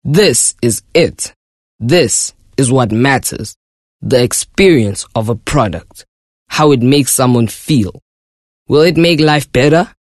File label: English conversational